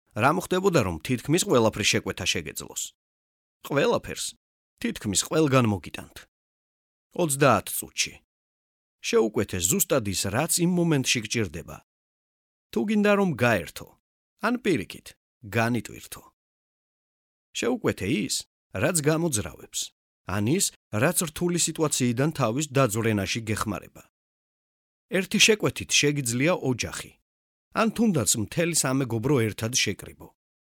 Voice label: 格鲁吉亚语男

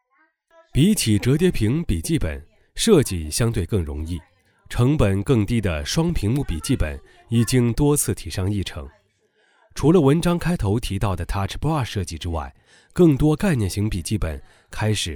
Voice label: 笔记本宣传

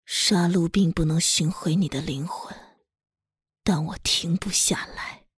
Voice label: 御姐阴沉偏执

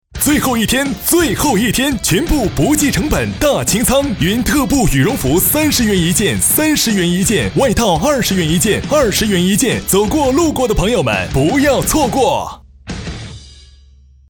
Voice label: 激情促销样音

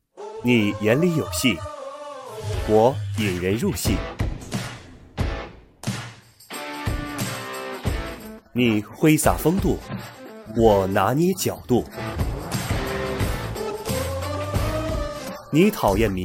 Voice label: 广告

